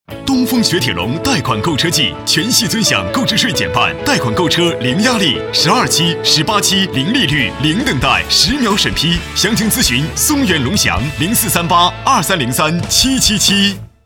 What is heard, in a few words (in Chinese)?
广告, 国语男声配音; 东风雪铁龙